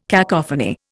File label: "cacophony", Indian